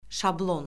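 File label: "şablon", Azerbaijani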